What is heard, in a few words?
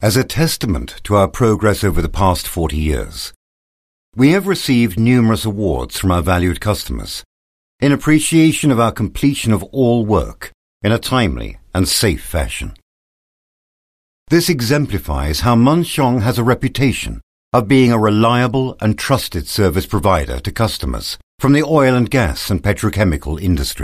UK English Corporate; Male